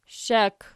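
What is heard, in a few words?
"shshak" nominative, Archi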